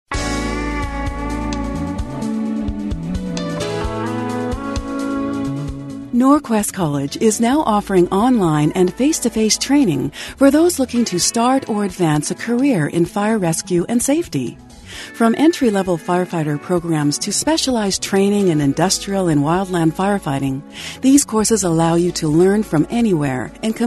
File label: On Hold